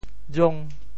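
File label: "jong5", Teochew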